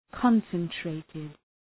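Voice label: "concentrated", English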